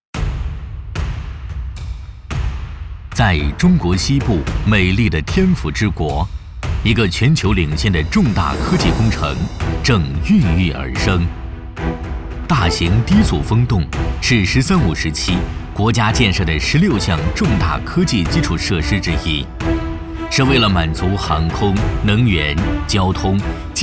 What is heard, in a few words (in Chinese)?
男 纪录片 风洞机